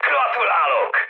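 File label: player killed enemy, mood med, Hungarian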